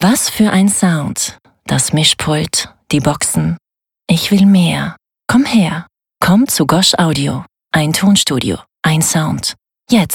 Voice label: Werbung zaertlich

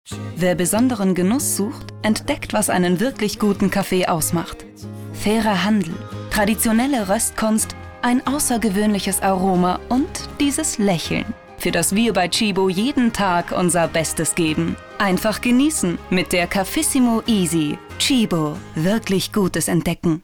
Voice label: Werbung; Tschibo

